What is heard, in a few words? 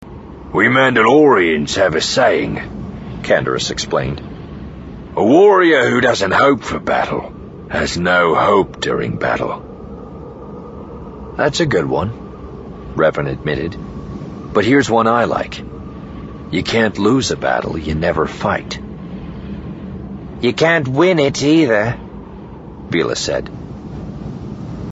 mando proverb